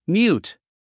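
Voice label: "mute", English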